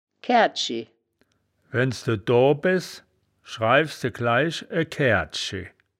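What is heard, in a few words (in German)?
"kaeaerdji", wittlicher woerterbuch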